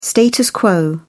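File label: "status quo", British English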